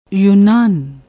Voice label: "yunan", Punjabi

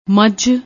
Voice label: "majh", Punjabi

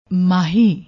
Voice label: "mahhi", Punjabi

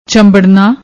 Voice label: "chambadnaa", Punjabi; ਚੰਬੜਨਾ

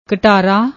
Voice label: "ktara", Punjabi; ਕਟਾਰਾ

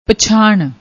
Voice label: "pachan", Punjabi

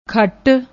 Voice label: "khatt", Punjabi; ਖੱਟ

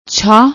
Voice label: "chhah", Punjabi; ਛਾਹ

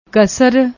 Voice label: "kasr", Punjabi; ਕਸਰ

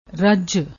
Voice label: "rujj", Punjabi

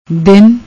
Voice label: "dinn", Punjabi

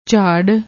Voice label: "jhaarr", Punjabi

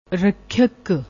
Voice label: "rakkhrak", Punjabi

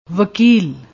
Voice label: "wakil", Punjabi

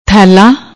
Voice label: "thaila", Punjabi

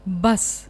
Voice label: "bas", Punjabi

